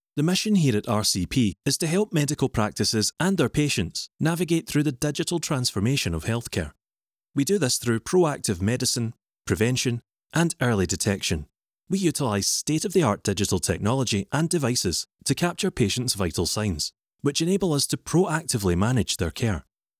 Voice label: Narration Scottish; AdvantageIVR